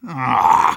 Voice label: negative vocalization; Sniper

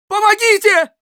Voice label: Scout help me, ru; "Помогите!"

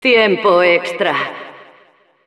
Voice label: Announcer overtime es

